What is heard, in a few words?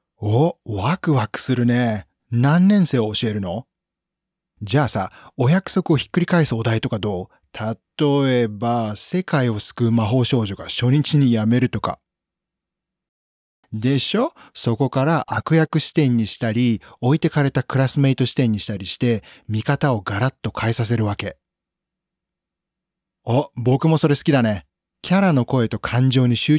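Japanese male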